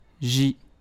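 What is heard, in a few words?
"J", French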